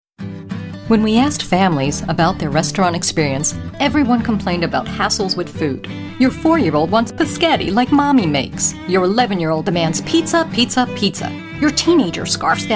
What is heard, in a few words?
commercial